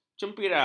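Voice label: "cempira", Malay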